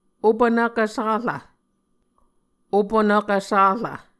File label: "opunvkv sarv", Muscogee